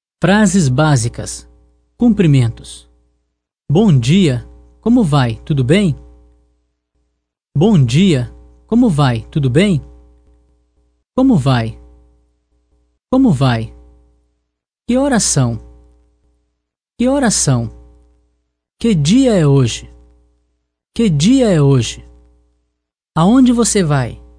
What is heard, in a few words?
portuguese phrases